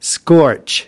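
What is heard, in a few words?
"scorch", US English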